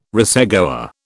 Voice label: "racegoer", British